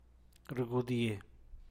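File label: "riab nisgiee", San Mateo Macuilxochitl Zapotec; tight; apretado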